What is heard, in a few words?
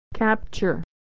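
"capture", American English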